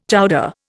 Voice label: "chowder", Indian